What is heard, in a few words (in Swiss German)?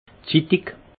"ziitig", alsace; vin